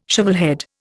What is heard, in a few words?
"shovelhead", Indian